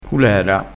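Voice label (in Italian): "culera", dialettale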